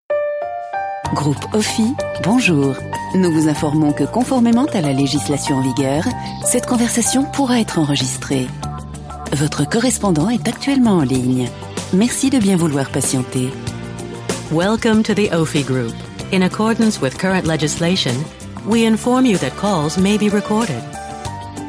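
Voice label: French voiceover phone message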